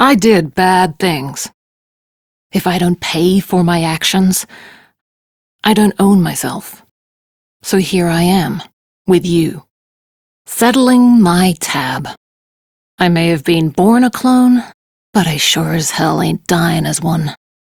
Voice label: Crew Idle, Steel Meridian Female; Dialog; en; Warframe; RailjackCrew